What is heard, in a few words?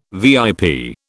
"VIP", British